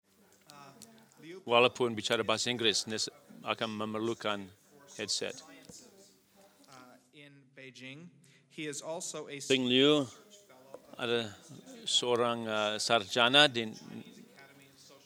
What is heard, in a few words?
Indonesian; iclrs symposium 2009